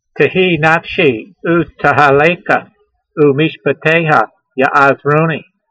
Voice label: voice, hebrew language; Psalm 119:175; tehee-nafshee oo'te'hal'ley'ka, oo'meeshpateyha ya'azrunee